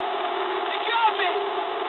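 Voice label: English aircraft destroyed mood med; speech; War Thunder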